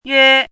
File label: "yue1", Chinese; chinese-voice